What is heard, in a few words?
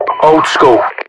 title oldschool, UK; english; voiceovers; mpvoice